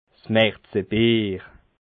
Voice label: "marzebier", alsace

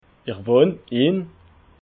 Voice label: "ich wohn in", Alsace

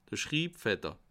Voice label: "schribfetter", alsace

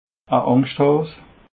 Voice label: "angschthaas", alsace

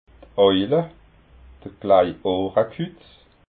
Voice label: "oila", alsace

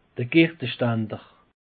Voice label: "gertestander", alsace; peche

